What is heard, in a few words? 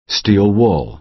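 "steel wool", American English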